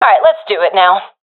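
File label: round losing, professional female; vo; Counter Strike Model Pack; CS:GO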